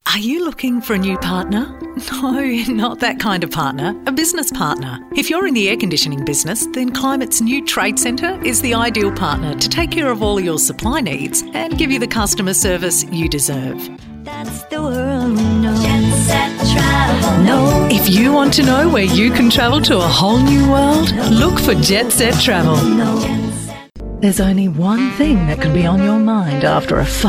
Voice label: english australian commercial